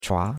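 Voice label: "chua2", Chinese; Cours de Chinois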